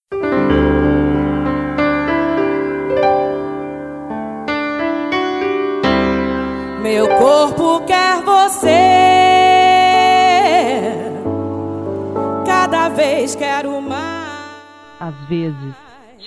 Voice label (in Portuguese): ROMANTICA PICANTE; Telemensagens 2017; Românticas